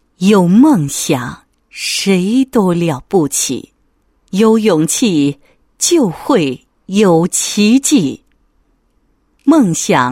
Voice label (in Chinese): 女 自白 无限极创业公司员工自白, 配音案例